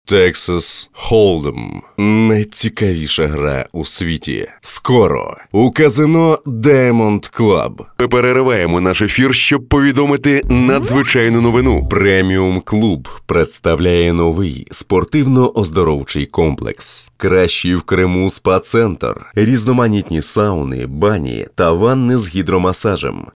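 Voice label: Ukrainian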